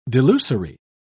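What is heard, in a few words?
"delusory", British English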